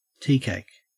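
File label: "teacake", Australian English; pronunciations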